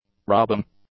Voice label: "rubbing", English; nouns

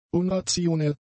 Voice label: "unrationell", German; adjectives

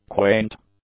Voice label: "quaint", English; adjectives

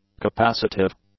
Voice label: "capacitive", English; adjectives

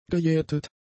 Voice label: "gejätet", German; adjectives

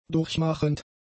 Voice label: "durchmachend", german; adjectives

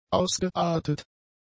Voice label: "ausgeartet", German; adjectives

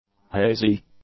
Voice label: "hazy", English; adjectives

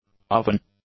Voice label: "oven", English; nouns

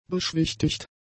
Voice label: "beschwichtigt", german; adjectives

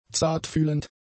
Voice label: "zartfühlend", German; adjectives

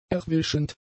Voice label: "erwischend", German; adjectives

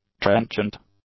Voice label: "trenchant", English; adjectives

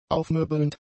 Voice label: "aufmöbelnd", German; adjectives

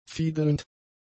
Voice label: "fiedelnd", German; adjectives

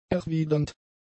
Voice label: "erwidernd", German; adjectives

